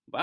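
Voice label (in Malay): "bakon", Malay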